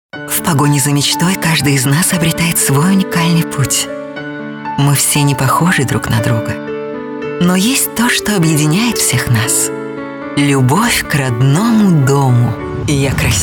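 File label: Russian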